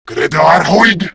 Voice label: Reload, Male; Warframe; Grineer Male; Battle Barks